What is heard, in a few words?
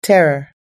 "terror", US English